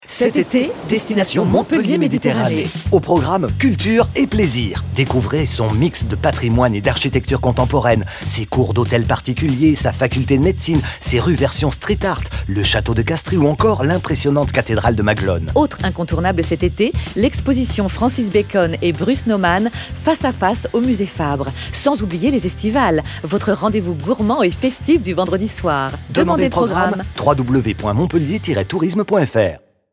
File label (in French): spot radio; montpellier; fb herault